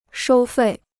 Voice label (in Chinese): "收费", Chinese